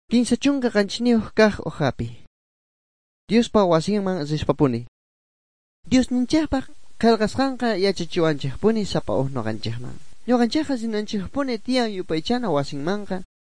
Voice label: good news quechua bolivian sucre; bible